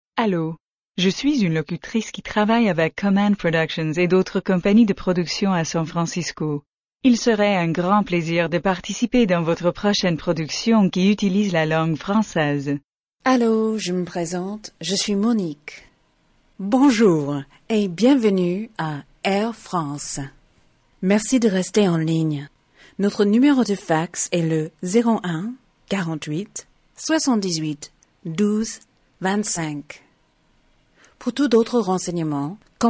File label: French